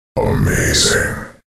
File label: "amazing", announcer